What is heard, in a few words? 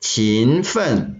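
"qin2fen4", Mandarin Chinese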